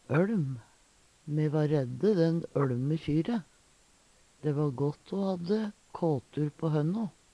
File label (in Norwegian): olm, Numedalsmål; øLm